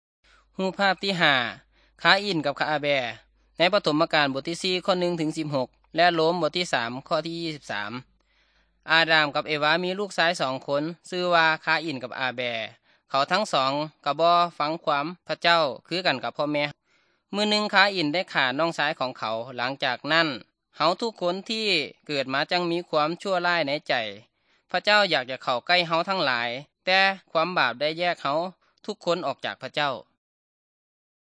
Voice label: good news thai northeastern